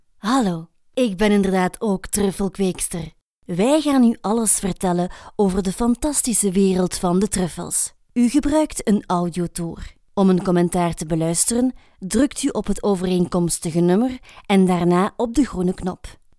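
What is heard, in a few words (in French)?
Audioguide musée de la truffe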